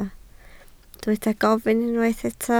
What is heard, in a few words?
"gofyn", Welsh